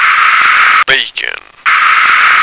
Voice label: "bacon", American